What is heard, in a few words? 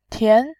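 "tian", Mandarin Chinese, second tone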